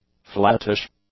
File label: "flattish", english; adjectives